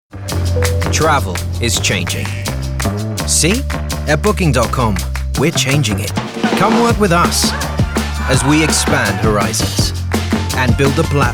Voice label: commercial reel

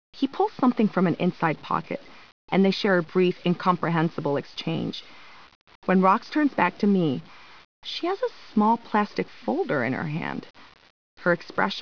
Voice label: AudioBook English with French accent

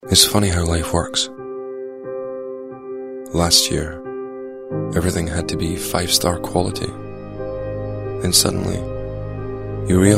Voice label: narrative

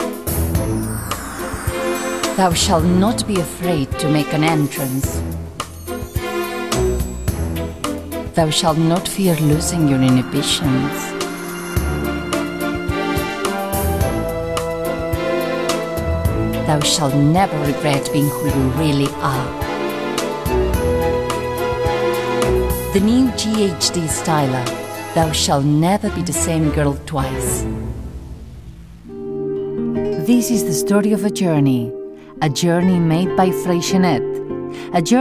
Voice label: english

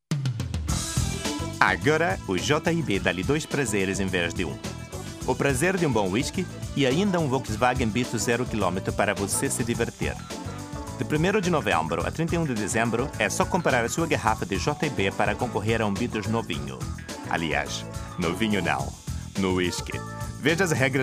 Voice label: portuguese portugal

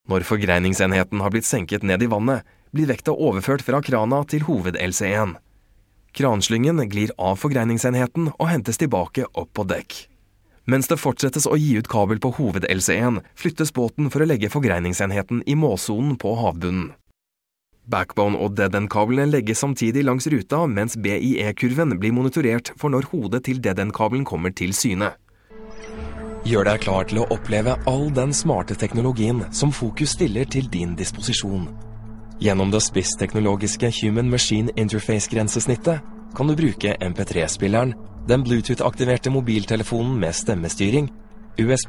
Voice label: norwegian corporate

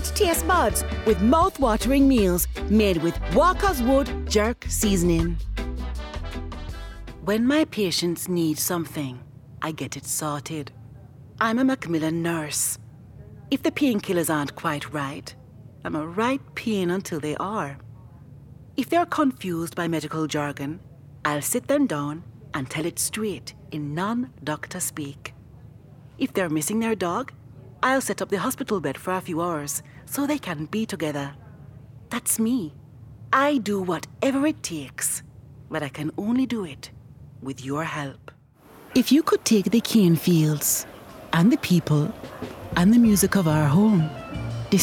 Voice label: Jamaican

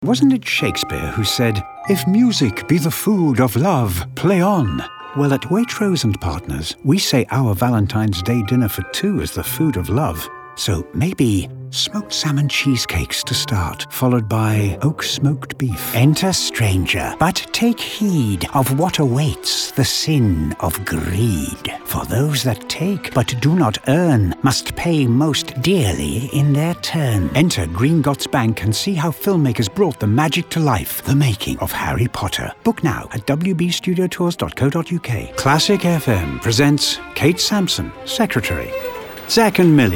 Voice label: commercial

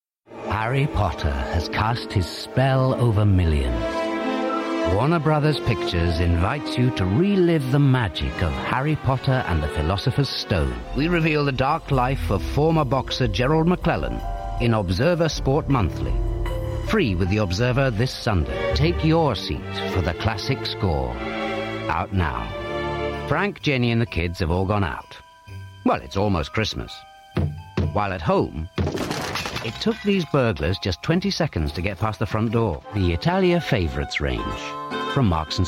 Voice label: Commercial

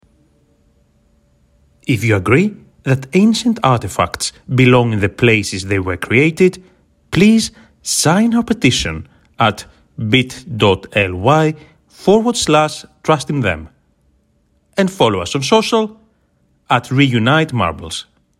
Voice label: english sample

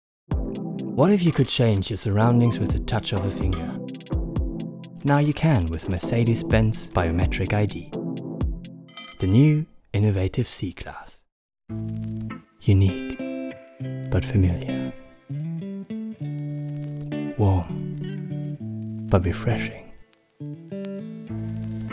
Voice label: English

